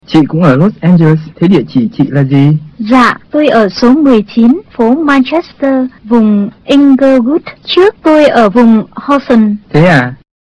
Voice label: dialog, vietnamese; seasite

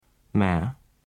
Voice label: ma low rising, vietnamese

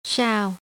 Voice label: "sao", vietnamese

